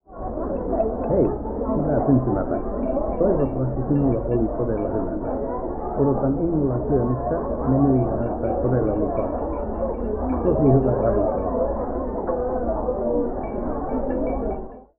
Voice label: dinner finnish without